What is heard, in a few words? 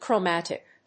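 "chromatic", English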